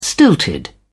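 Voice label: "stilted", British English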